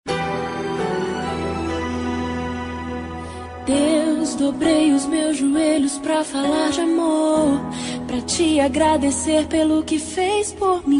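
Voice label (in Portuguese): dn gospel esposa masc, dia dos namorados para esposa evangelica voz masculina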